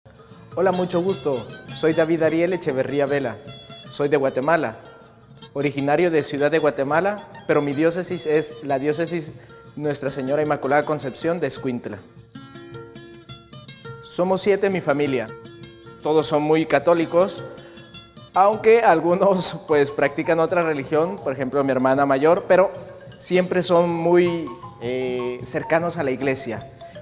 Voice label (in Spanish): testimonio; MI VOCACION NACIO VIENDO A CRISTO CRUCIFICADO; seminarista de Guatemala